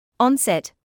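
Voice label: "onset", British English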